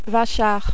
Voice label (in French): "vachard", French